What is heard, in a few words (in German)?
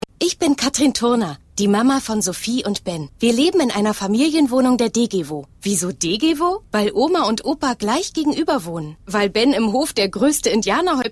Werbung; Degewo